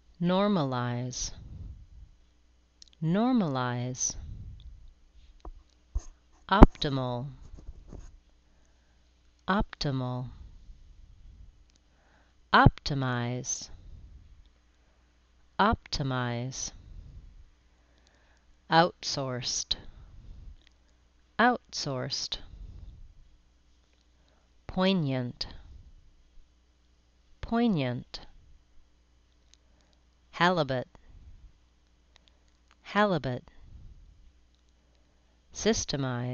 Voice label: business words syllable